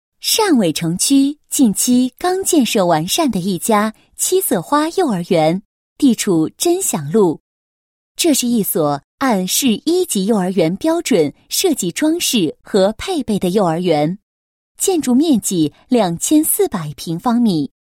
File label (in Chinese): 女 宣传广告, 幼儿园宣传简介; 七色花